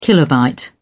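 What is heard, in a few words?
"kilobyte", British English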